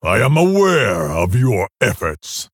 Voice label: Male Orc Thanks

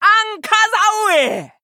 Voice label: Female Troll; Hooktusk

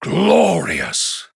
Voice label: Male Human Wow

